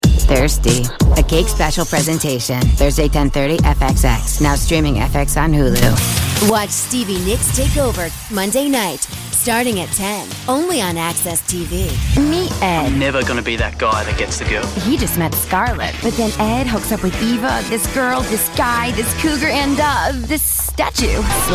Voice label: promo women

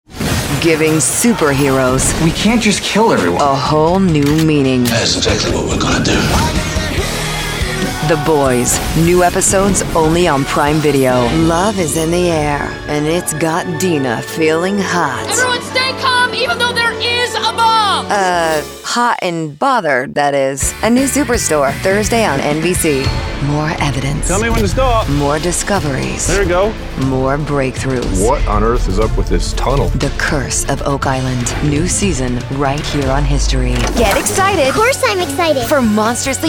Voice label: promo women

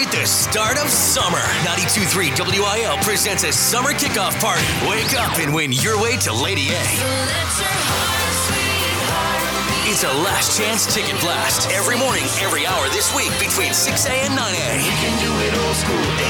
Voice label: radio country, radio imaging

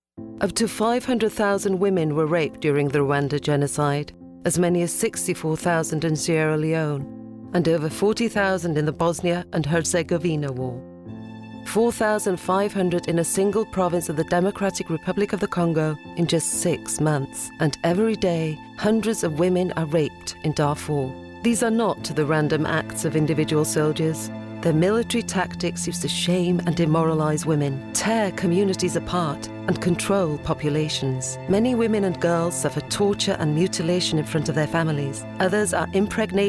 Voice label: languages female british narration

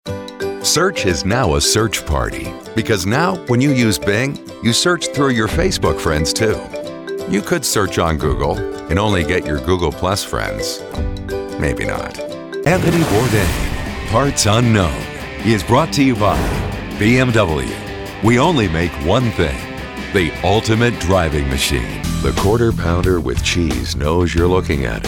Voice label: commercial, men

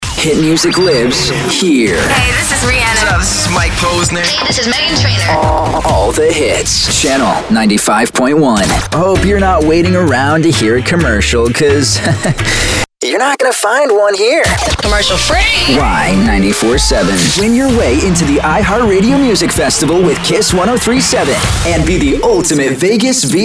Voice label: radio men top 40; radio imaging

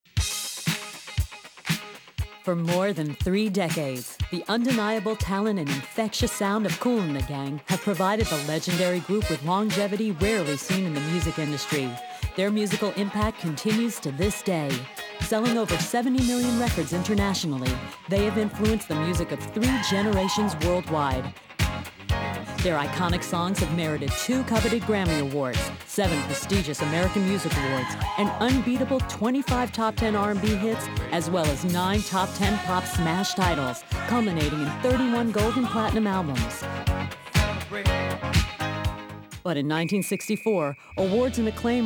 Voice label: narration women

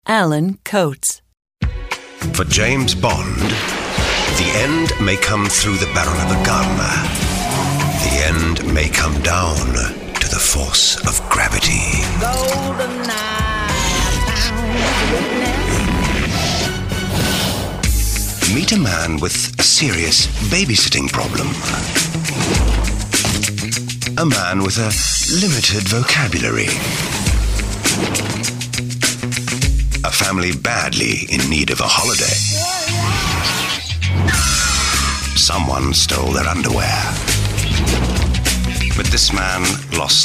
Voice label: British; British accent; languages and accents

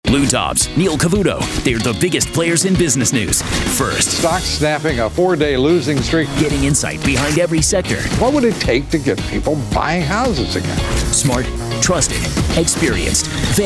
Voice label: radio newstalk, radio imaging

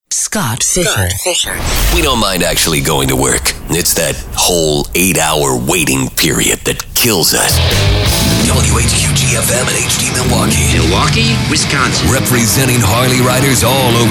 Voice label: radio men rock classic rock; radio imaging

